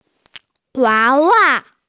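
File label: "wa wa" (doll), Mandarin Chinese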